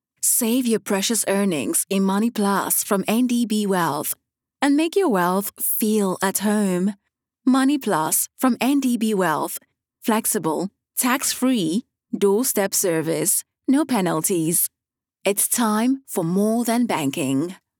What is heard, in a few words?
Sales British accent